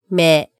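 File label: "me", Japanese syllable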